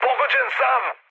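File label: Serbian aircraft damaged mood med, speech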